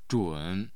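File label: "zhun3", Mandarin Chinese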